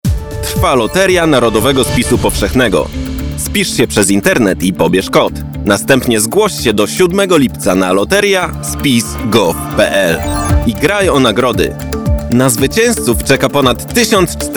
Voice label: radio spot